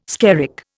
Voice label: "skerrick", Indian

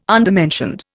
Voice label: "undermentioned", Indian

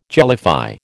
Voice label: "jellify", American

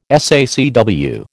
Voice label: "SACW", American

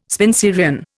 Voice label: "Spenserian", Indian

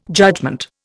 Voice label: "judgement", Indian

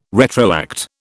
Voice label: "retroact", British

